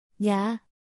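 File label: "냐", Korean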